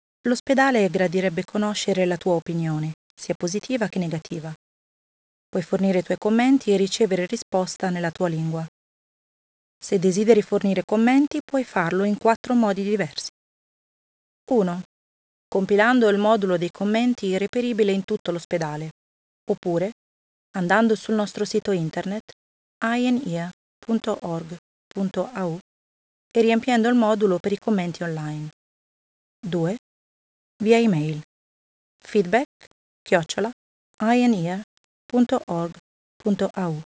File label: Italian; How to provide feedback